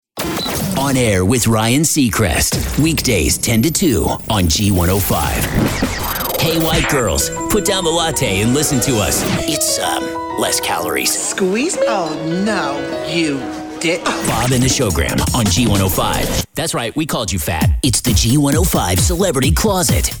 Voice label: CHR VO; G105 Raleigh